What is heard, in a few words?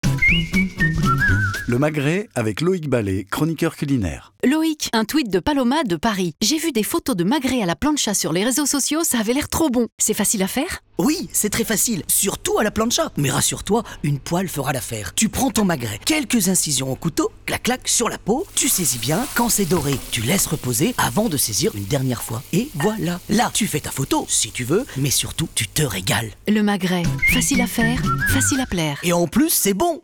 radio 30s Jingle; Le magret avec Loïc Ballet; Paloma